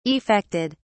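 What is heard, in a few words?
"effected", British English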